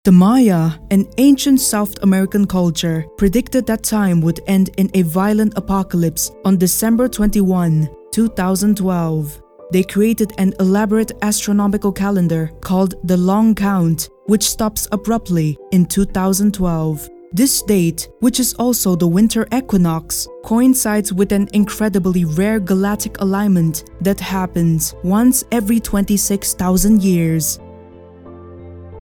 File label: vo docu with music